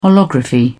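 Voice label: "holography", British English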